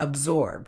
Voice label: "absorb", Medical English